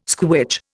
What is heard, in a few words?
"squitch", Indian